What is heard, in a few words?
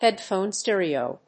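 "headphone stereo", English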